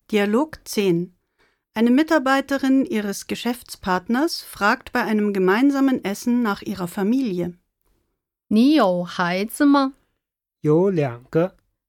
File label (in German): Dialog